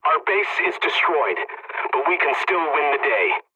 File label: pilot base destroyed ally, english; War Thunder